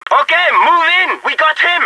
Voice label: enemy got, taunt; phx new taunts pack; hunters pack